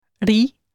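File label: "ri" Spanish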